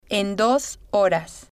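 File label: "en Dos Horas", Spanish, female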